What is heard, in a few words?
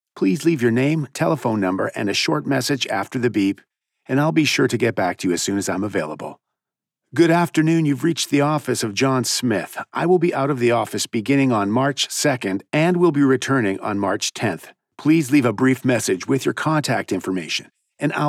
IVR Voicemail